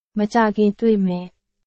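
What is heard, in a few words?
Burmese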